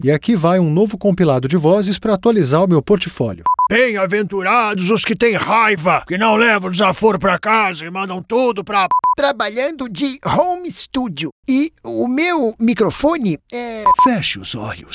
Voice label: Commercial, Brazilian Portuguese; Male; Neutra